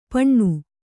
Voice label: "ಪಣ್ಣು", Kannada

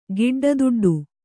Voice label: "ಗಿಡ್ಡದುಡ್ಡು", Kannada

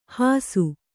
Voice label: "ಹಾಸು", Kannada

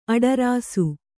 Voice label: "ಅಡರಾಸು", Kannada

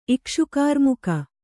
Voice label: "ಇಕ್ಷುಕಾರ್ಮುಕ", Kannada

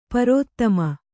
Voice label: "ಪ್ರಥಮೆ", Kannada

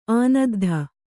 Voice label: "ಆನದ್ಧ", Kannada

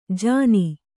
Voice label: "ಜಾನಿ", Kannada

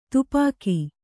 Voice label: "ತುಪಾಕಿ", Kannada

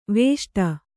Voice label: "ವಿಷ್ಟಿ", Kannada

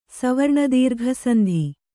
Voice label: "ಸವರ್ಣದೀರ್ಘಸಂಧಿ", Kannada; savarṇa dīrgha sandhi; noun

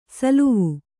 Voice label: "ಸೋಲುವೆ", kannada

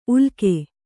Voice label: "ಉಲ್ಕೆ", Kannada

